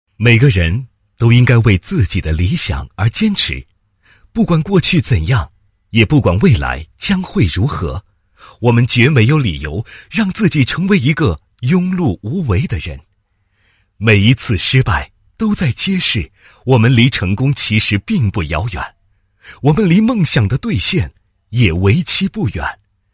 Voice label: 男 诗歌 现代诗歌; 让梦想发声

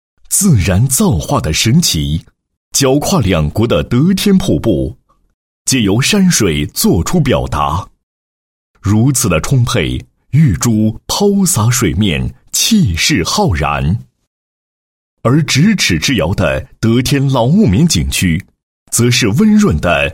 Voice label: 男 景区宣传, 配音; 德天老木棉景区

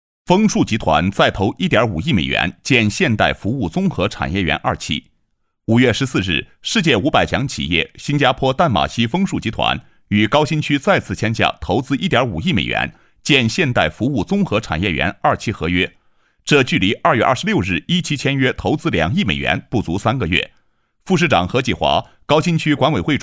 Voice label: 新闻录音; 麓谷; 新闻通告

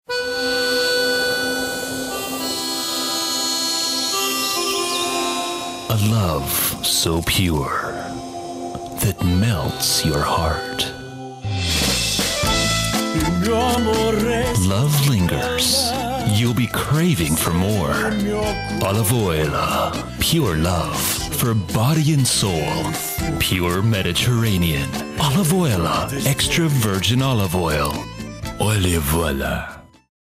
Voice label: 外籍男 广告; Olivoila

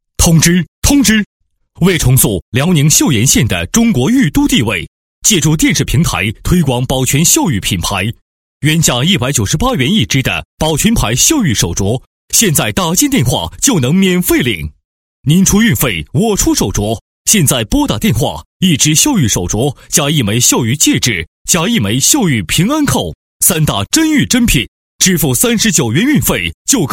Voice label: 男 电视直销, 国语男声配音; 玉手镯

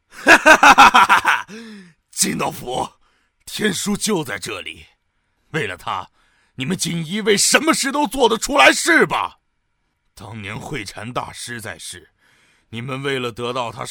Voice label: 角色, 野性粗糙壮汉

